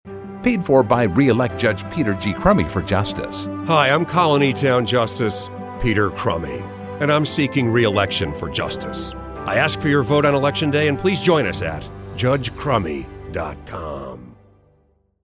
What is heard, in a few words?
Radio Ad